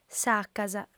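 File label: "sacas", female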